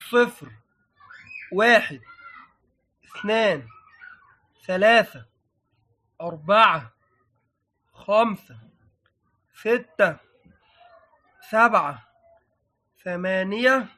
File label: Arabic Numbers Counting